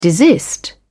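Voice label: "desist", British English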